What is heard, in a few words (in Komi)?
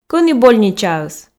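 кӧні больничаыс, Komi, female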